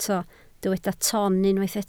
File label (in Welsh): "ton", Welsh